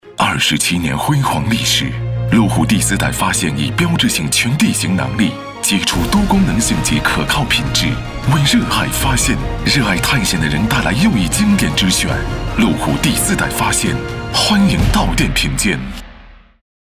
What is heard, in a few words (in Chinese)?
国语男 电台 广告; 路虎